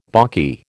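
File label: "balky", American English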